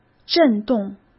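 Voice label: "震动", Mandarin Chinese; chinese-flashcards; hsk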